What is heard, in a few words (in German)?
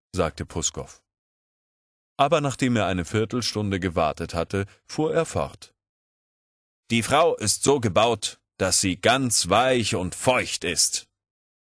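In die fresse, Sprecher, Männer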